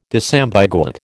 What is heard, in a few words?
"disambiguate", American